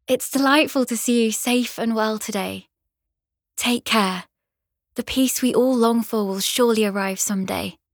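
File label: voice english welcome; Daphne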